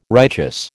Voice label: "righteous", American

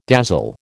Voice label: "dazzle", American

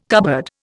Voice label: "cupboard", Indian

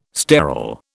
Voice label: "sterol", British

